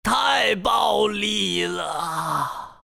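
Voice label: death, Chinese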